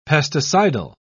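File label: "pesticidal", British English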